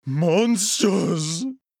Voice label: voice monsters